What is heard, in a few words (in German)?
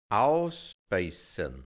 "ausbe(i)ssan", hianzisch Mundart, Burgenland